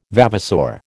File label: "vavasour", American